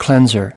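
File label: "cleanser", English word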